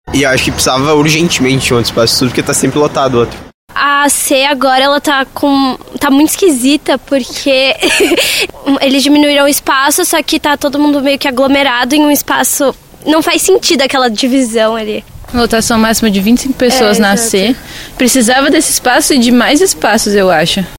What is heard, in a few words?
VOx POP